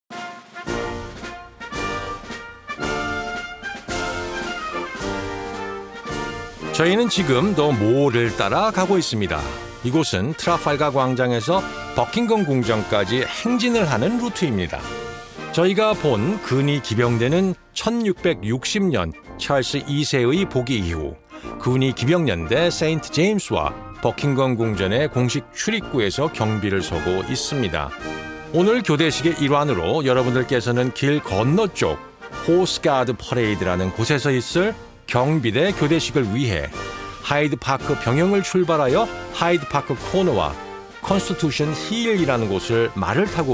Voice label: Korean; Changing of the Guard